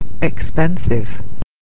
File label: "expensive", English